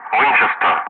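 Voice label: "winchester", English; Ka-50; Wingman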